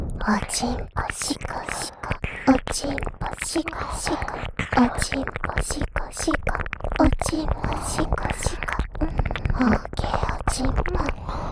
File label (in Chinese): 紧贴轻语侍奉♪＋双耳舔耳; 自慰辅助特典音声